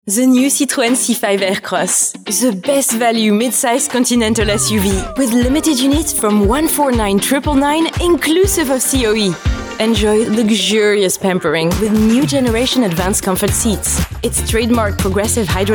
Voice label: English Commercials; Vocal Point

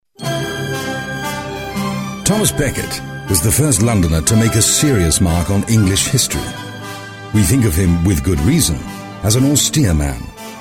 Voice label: Documentary Narration

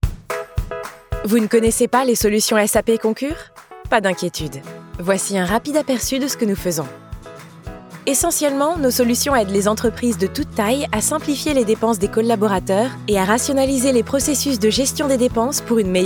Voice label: French Corporate; Vocal Point

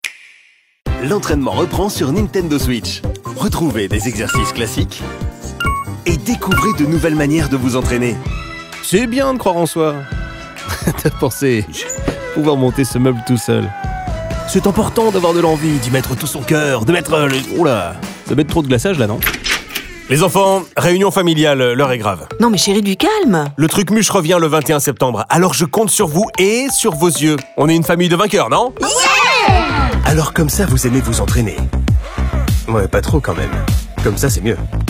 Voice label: French Commercials

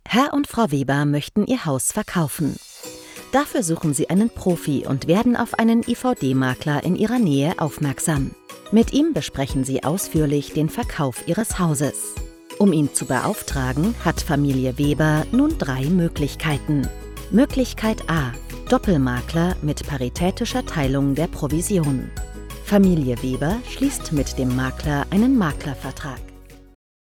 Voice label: German Explainer

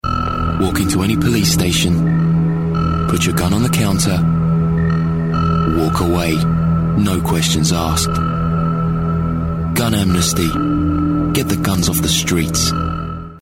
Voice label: Commercial; Gun amnesty; Vocal Point

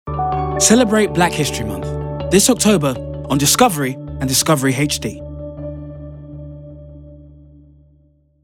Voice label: Promo; Discovery; Vocal Point

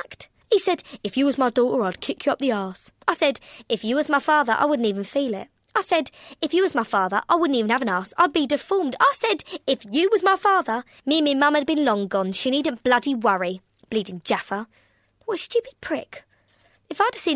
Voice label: Narration Bus driver

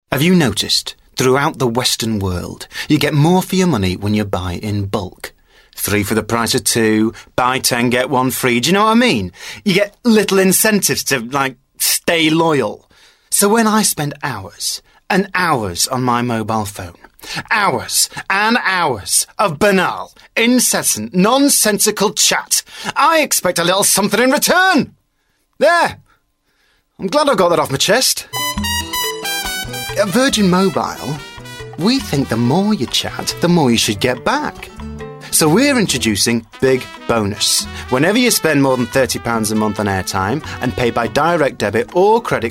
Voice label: Commercial; Virgin mobile; Vocal Point

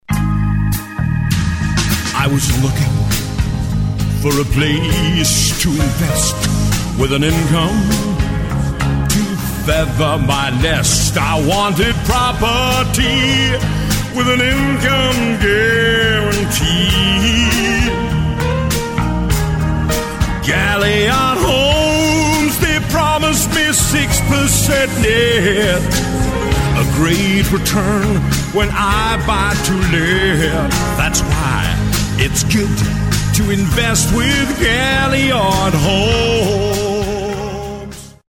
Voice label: Commercial; Galliard homes; Vocal Point

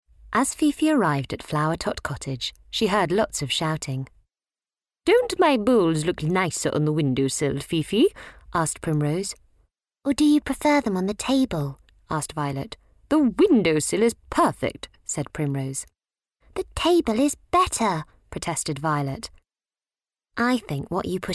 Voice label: narration; Fifi; Vocal Point

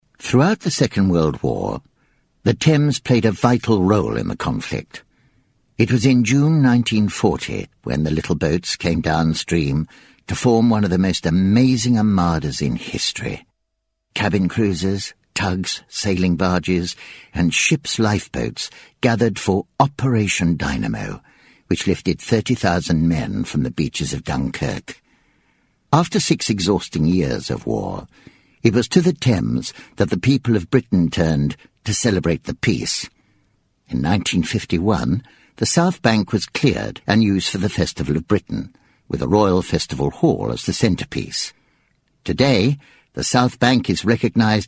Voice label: Narration; The Thames; Vocal Point

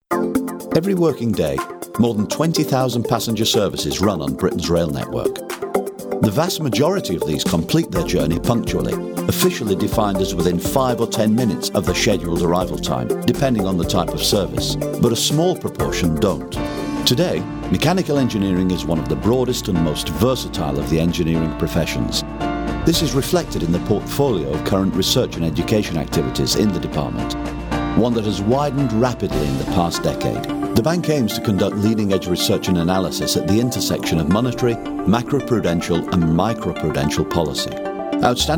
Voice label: Corporate Mix

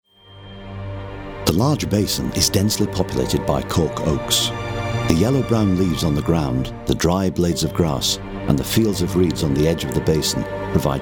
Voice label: Documentary Mix

